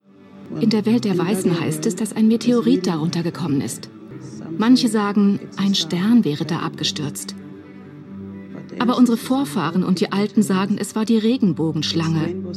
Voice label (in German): voice-over dokmentarspielfilm; fireball